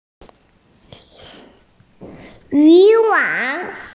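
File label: "fishing net", Mandarin Chinese, female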